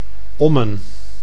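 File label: "Ommen", Dutch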